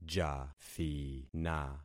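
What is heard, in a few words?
"jathina", concatenated syllables; Affixing experiment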